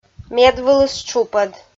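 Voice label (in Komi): "медвылыс тшупӧд", Komi-Zyrian, female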